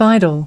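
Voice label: "Vital", American English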